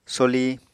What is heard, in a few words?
"soli", Apatani